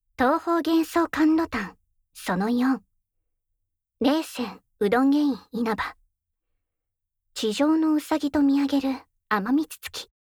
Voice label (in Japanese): タイトルコール